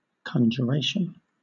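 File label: "conjuration", British English; pronunciations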